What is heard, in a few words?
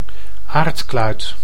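"aardkluit", Dutch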